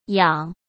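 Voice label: "仰", Mandarin Chinese; chinese-flashcards; hsk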